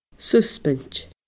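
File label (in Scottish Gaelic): "susbaint", Scottish Gaelic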